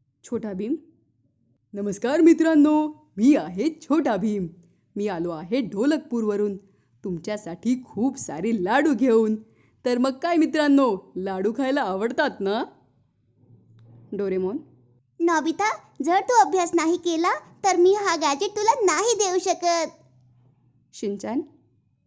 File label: animation marathi; Soft, expressive, emotional, classy, smooth, corporate and professional if required.